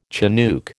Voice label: "chinook", American

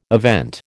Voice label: "event", American

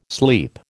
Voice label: "sleep", American

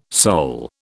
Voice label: "soul", British

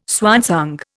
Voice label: "swansong", Indian

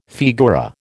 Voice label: "figura", American

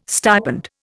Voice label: "stipend", Indian

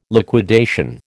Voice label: "liquidation", American

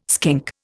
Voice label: "skink", Indian

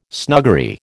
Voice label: "snuggery", American

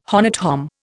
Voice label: "honnÈte homme", Indian

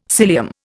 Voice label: "cilium", Indian

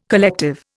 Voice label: "collective", Indian

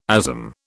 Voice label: "ASM", British

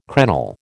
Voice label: "crenel", American

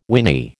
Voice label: "whinny", American

